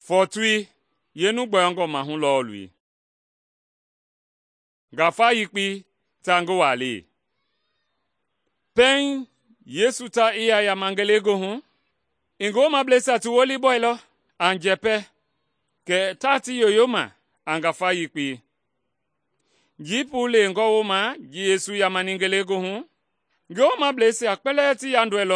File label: mende bsl nt drama; good news mende kpa